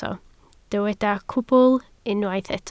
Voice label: "cwbl", Welsh